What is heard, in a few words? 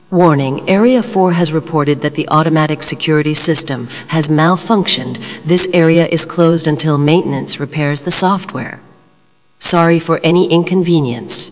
tride announcement